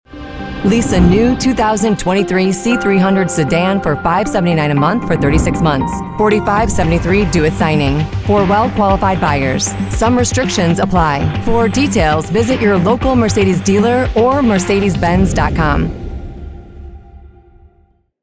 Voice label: Auto Disclaimer

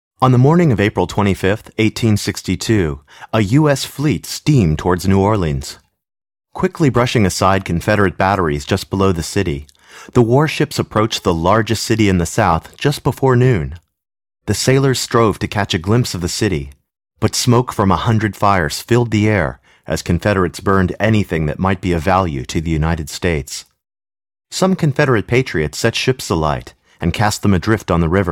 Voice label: US Audiobook

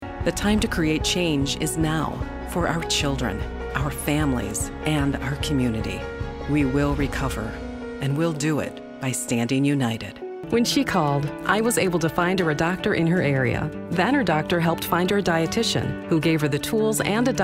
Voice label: Commercials VO

